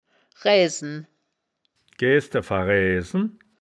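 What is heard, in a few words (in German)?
"raeaesen", wittlicher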